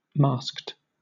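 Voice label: "masked", British English; pronunciations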